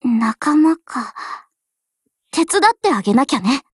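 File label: BUILD OVER, Japanese, Voice; LWMMGMod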